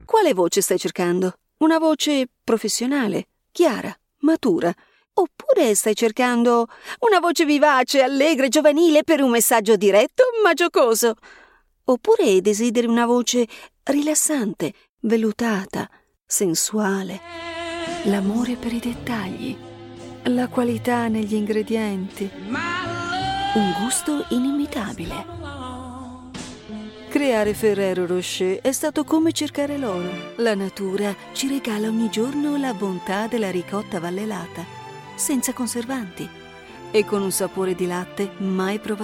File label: ITA